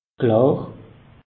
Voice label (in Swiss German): "klar", alsace; meteo